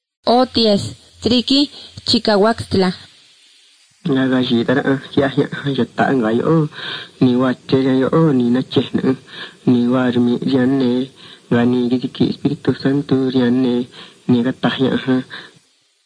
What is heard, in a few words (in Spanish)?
spanish mexico